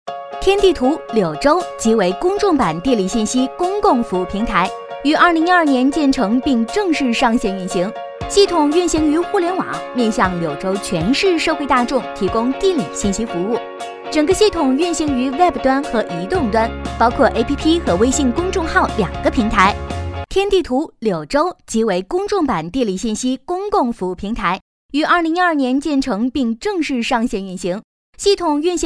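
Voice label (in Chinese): 女国大音-【mg】; 天地图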